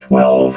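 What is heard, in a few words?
"twelve", robot voice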